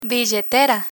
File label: "billetera", Spanish